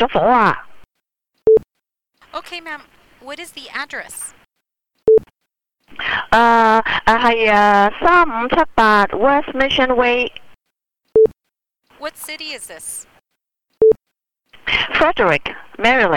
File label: 911 House Fire Cantonese without interpretation